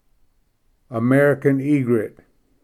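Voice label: "american egret", English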